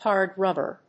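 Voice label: "HARD RUBBER", English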